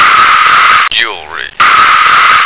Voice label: "jewellery", American